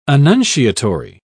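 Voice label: "annunciatory", British English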